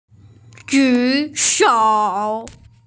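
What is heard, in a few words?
"ju shou", Mandarin Chinese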